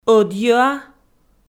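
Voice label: "ӧдйӧа", Komi-Zyrian, female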